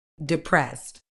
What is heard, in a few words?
"depressed", uk